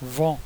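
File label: "wind", French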